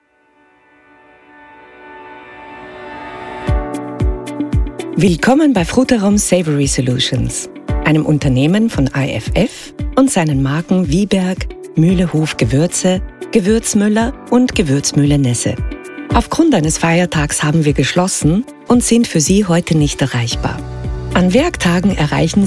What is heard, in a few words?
german austrian ivr